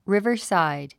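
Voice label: "riverside", English word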